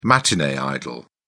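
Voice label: "matinee idol", British English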